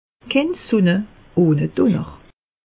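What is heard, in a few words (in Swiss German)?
"summer", Alsatian; meteo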